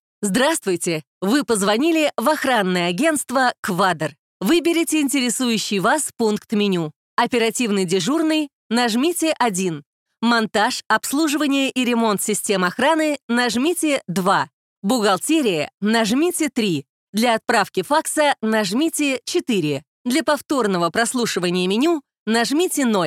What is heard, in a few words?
ivr